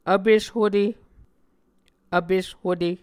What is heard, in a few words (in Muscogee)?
"vpes hute", muscogee